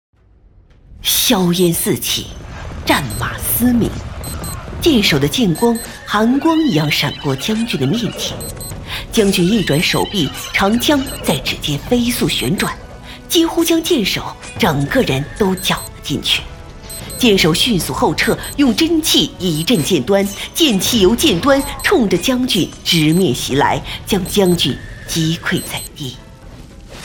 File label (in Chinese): 动画开场旁白